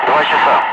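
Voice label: Russian speech, Ka-50 Wingman; DCS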